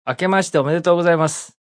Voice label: New Year Japanese, Male; Holiday; VoiceBox